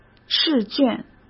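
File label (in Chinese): "试卷", Mandarin; chinese-flashcards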